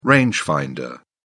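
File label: "rangefinder", British English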